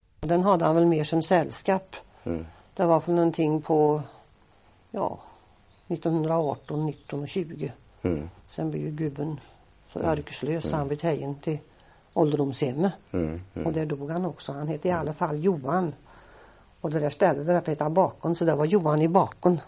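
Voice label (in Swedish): Färnebo Värmland, dialekter; Svenska sagor; Folkminnen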